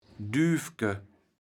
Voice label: "Düfke", kaevels platt

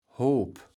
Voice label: "Hoap", kaevels platt

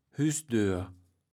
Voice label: "Hüßdör", kaevels platt

